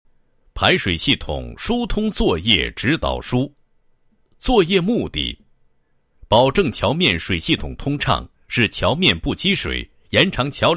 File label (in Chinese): 国语男声配音 课件; 排水系统疏通作业指导书